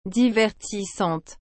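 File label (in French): "divertissante", French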